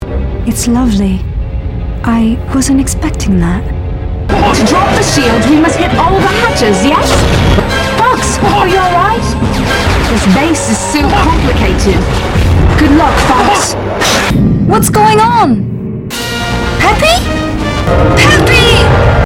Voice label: English; Assault; Aparoid Homeworld Outside